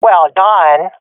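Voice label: sees enemy killed, swat female; Counter Strike Model Pack; CS:GO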